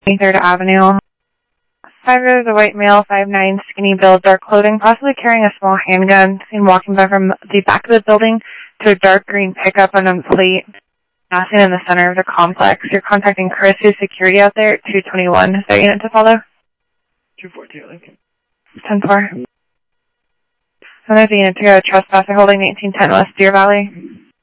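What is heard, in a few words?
police, scanner audio; ThompsonPeakHigh; PHOENIX PD-200 A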